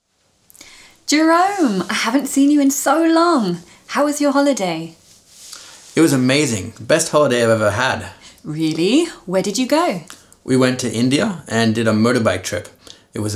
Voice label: Conversation Past simple